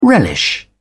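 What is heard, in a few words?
"relish", British English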